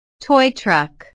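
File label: "toy truck", English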